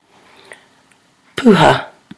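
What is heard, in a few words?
"puha", Māori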